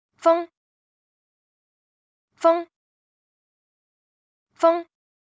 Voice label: "風", cantonese; Traditional Chinese

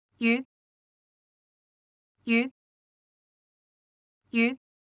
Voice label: "魚", cantonese; Traditional Chinese

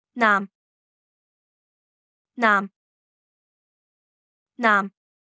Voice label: "男", cantonese; Traditional Chinese

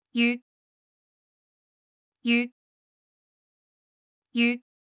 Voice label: "雨", cantonese; Traditional Chinese

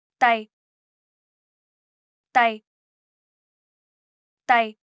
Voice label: "弟", cantonese; Traditional Chinese

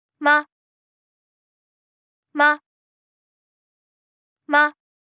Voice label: "媽", cantonese; Traditional Chinese

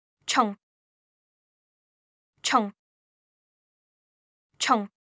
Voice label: "蟲", cantonese; Traditional Chinese

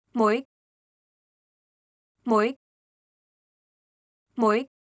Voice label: "妹", cantonese; Traditional Chinese

